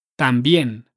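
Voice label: "tambien", Spanish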